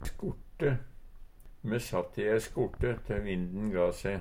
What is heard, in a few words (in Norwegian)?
"skorte", Numedalsmål; Substantiv hokjønn